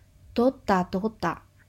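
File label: "dota dota", Japanese; ドタドタ – dota dota; manga onomatopoeia sound effects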